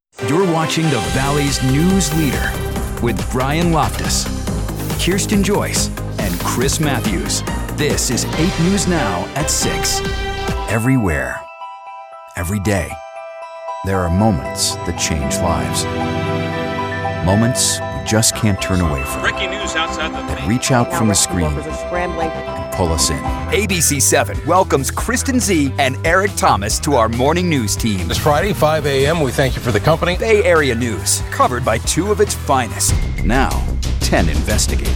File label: tv affiliate male